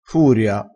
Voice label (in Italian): "furia", crespinese